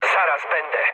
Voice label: near waypoint mood med, speech pol; War Thunder

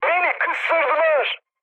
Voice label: aircraft destroyed, mood med, speech tur

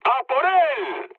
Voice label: attack position, mood med, speech; War Thunder

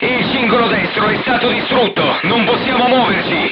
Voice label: right track knocked down, crew tanks italian; War Thunder

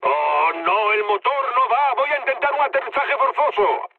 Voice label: damaged engine, mood low, speech; War Thunder

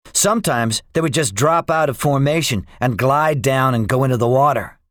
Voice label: debriefing, english; guadalcanal; War Thunder

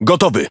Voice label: Speech Pilots Torpedo Ready, PL; World Of Warships

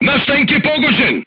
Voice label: voice message player tank hit, crew tanks serbian

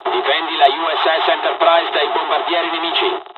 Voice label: attack, dialogs italian; War Thunder

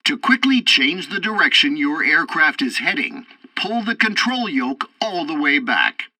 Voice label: tutorial assault bombing, english; War Thunder

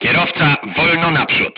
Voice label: voice message drive slowly, crew tanks polish

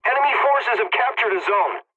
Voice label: pilot capture enemy, english; War Thunder

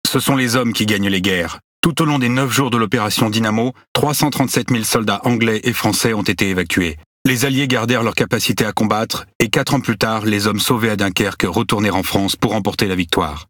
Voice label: FINISH SUCCESS, FR; PVE

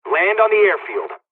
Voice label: airfield land, dialogs hungarian

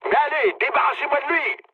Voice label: aircraft damaged mood low, speech fr; War Thunder

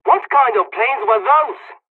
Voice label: dialogs turkish; War Thunder

